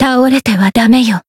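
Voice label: heal, Japanese; violet lancer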